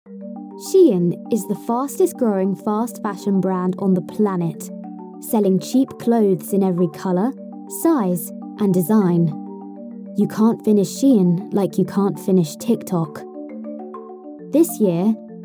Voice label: Documentary

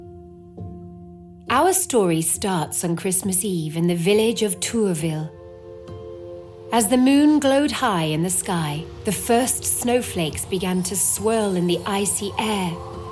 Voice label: Podcast

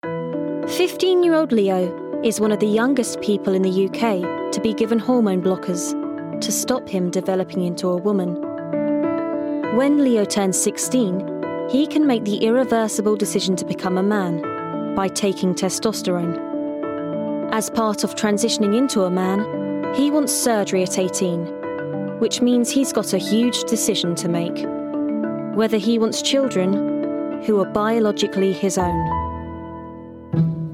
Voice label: Documentary

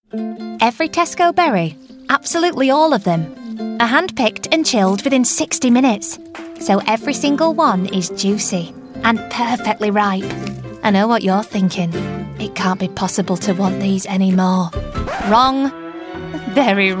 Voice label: commercials, regional accents

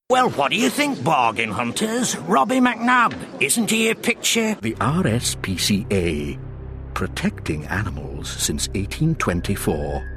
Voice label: Scottish

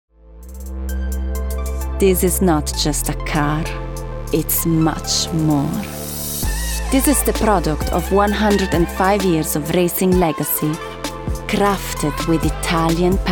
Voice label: English

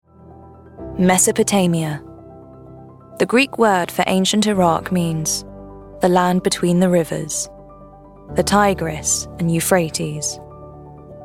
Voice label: Documentary Extract

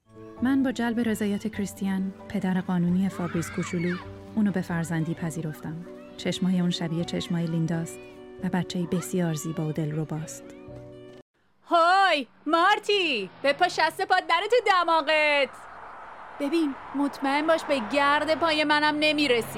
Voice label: Farsi

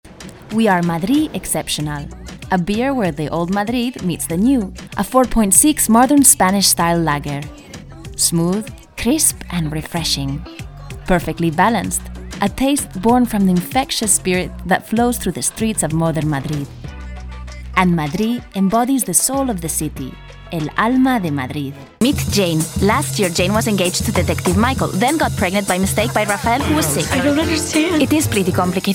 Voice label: ACCENTED ENGLISH

